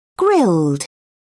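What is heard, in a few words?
"grilled", British English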